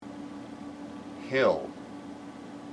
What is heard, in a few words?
"hill", IPA